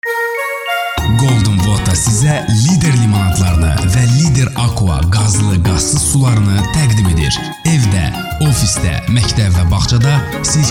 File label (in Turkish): Azerice Erkek; seslendirme